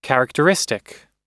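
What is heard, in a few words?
"characteristic", American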